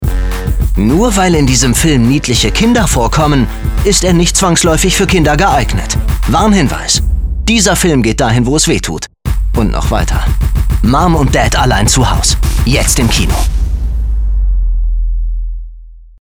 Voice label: Trailer Comedy, German; voice

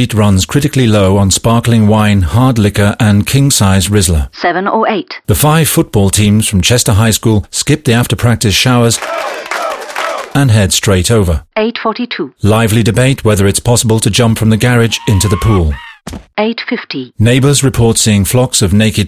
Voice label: Party English Radio; LH FlyNet

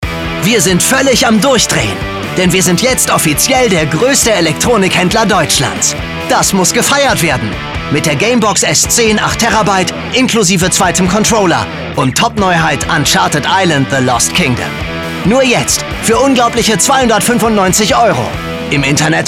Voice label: Hardselling, German; voice

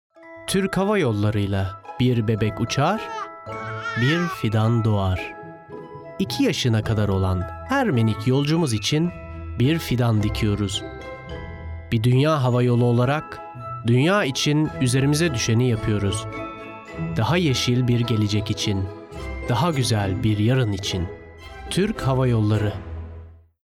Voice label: Turkish

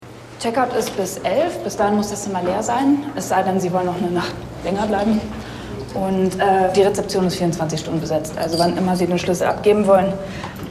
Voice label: another hurried man voice; LOFT VOICES

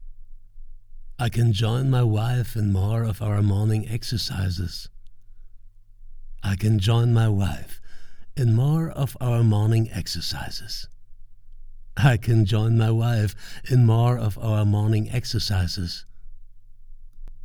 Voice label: copd, english

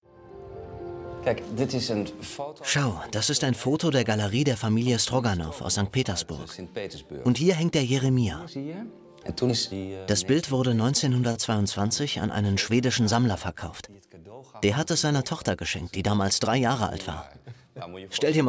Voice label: Voiceover Doku, German; 3sat

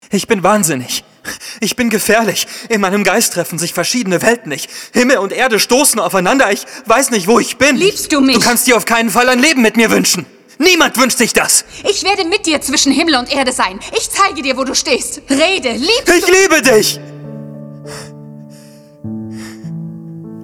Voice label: Synchro Verzweifelt, Lip-Sync (Synchron), German; Synchron Verzweiflung

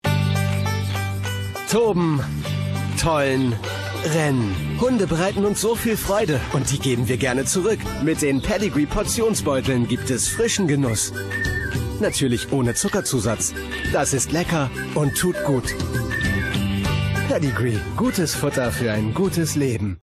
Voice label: tv spot; pedigree

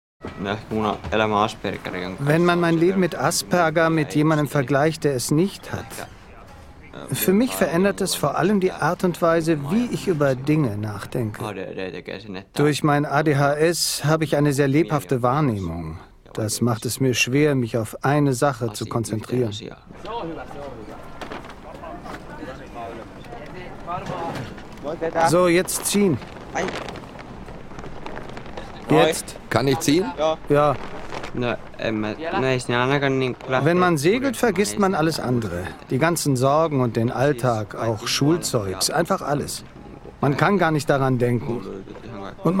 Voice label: Voice Over; All inclusive

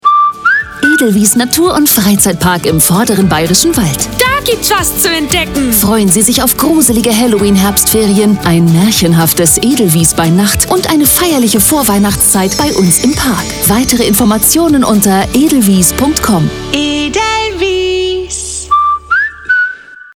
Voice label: Commercial (Werbung), German; Herbst Winter; Edelwies; LOFT VOICES

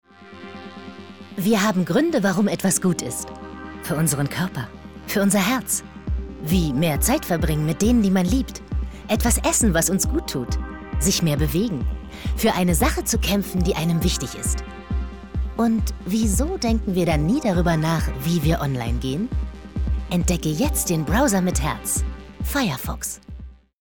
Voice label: GERMAN MIX; MOZILLA

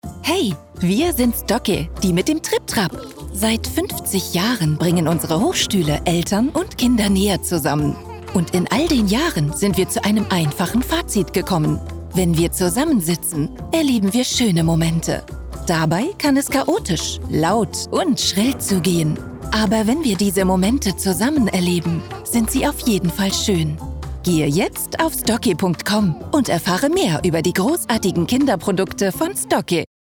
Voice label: Spotify Ad; Dentsu; Stokke

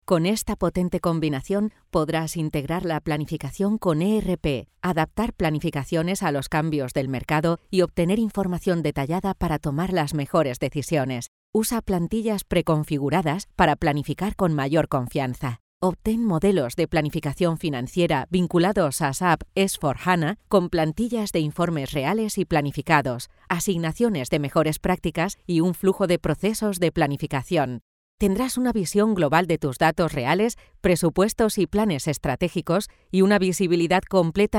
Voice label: elearning energ; LOFT VOICES

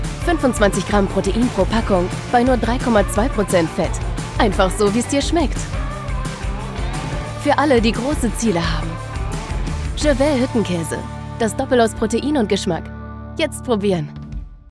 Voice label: Commercial, Digital Spot, German, Jung (18-30); Gervais Hüttenkäse

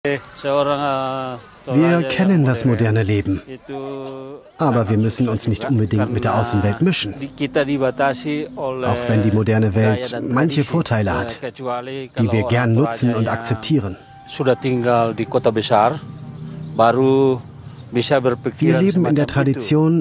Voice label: Voiceover; NDR

